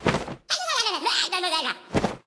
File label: Viking girl